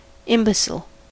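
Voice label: "imbecile", US English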